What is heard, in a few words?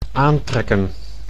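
"aantrekken", Dutch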